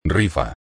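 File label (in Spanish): "rifa", Spanish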